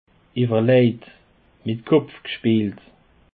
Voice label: "ewerlejt", Alsace

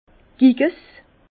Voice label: "Giges", Bas Rhin; vin; piquette

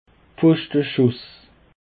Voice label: "pfochteschuss", Alsace

